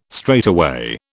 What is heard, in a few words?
"straightaway", British